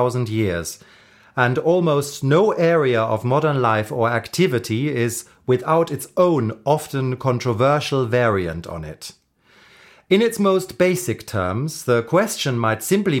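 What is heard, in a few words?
German Accented English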